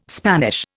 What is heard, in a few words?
"Spanish", Indian